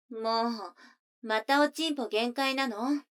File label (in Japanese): 女の子台詞, ASMR